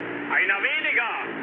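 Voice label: German player killed enemy mood low, speech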